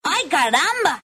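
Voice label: "Ay Caramba", Spanish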